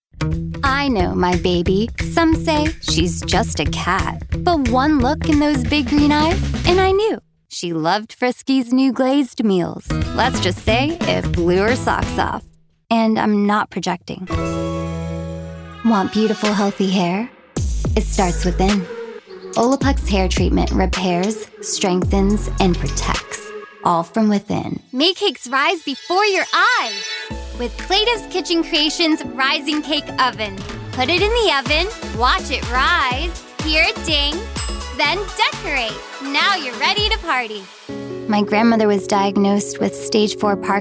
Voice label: Commercial